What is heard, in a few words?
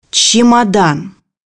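"suitcase", Russian; bukvar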